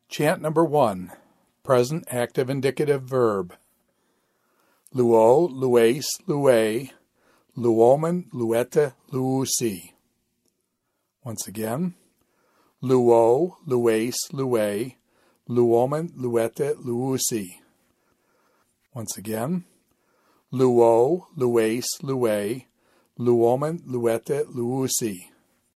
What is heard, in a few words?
Quick Chant PAI Verb; luw